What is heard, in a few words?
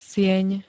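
"sieň", Slovak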